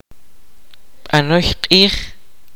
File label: kaqchikel; Servant paid laborer